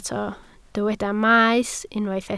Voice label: "maes", Welsh